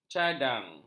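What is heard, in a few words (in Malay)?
"cadang", Malay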